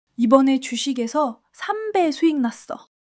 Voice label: excitement